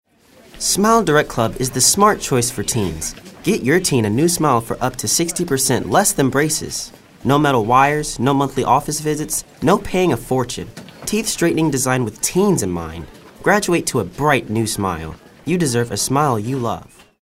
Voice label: kid next door